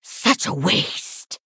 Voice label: Female San'layn Emote Trigger Blood Boil